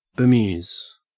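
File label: "bemuse", Australian English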